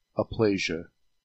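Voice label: "aplasia", American English; pronunciations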